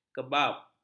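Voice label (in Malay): "kebab", Malay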